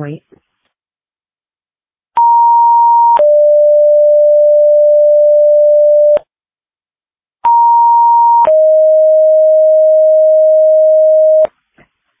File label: Tripoli Fire, dispatch